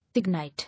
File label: "Ignite", English